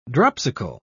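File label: "dropsical", British English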